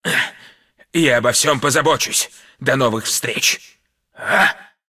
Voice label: cinematic voice, ru; lineage2